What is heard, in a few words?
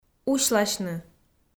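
"усьласьны", Komi, female; kpv audio dict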